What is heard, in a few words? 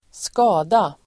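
"skada", Swedish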